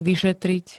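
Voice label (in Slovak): "vyšetriť", Slovak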